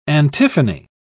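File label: "antiphony", British English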